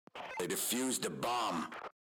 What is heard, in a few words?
bomb diffused, radio